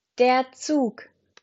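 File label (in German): "Zug", German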